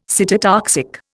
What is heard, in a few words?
"cytotoxic", Indian